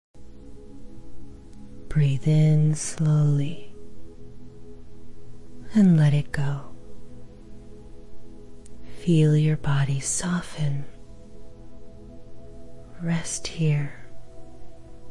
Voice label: wellness app meditation